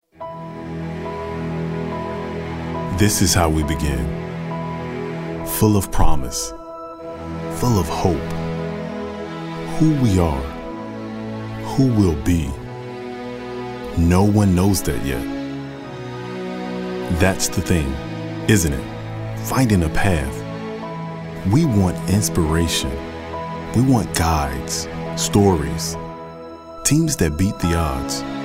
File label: motivational